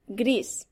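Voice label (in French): "gris", French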